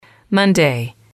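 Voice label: "monday", English, female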